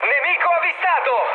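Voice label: Italian enemy detected mood high, speech; War Thunder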